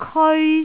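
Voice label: "keoi1", Cantonese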